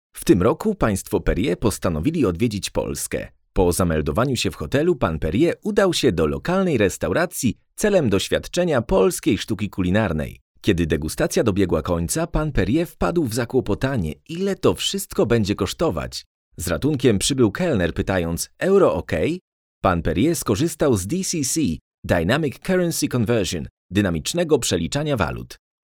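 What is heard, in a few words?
Polish, male, Corporate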